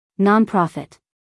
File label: "nonprofit", US female